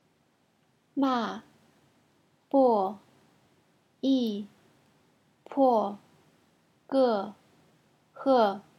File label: chinese fourth tone